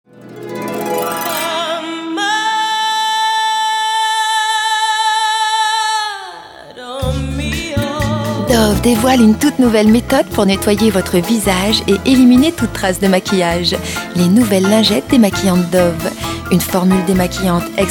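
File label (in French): souriante fraiche posee, voix off féminine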